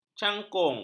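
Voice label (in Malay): "cangkung", Malay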